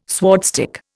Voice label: "swordstick", Indian